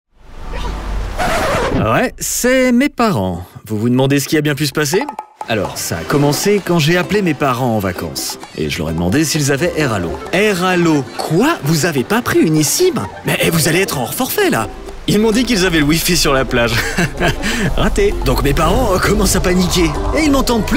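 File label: Commercial, French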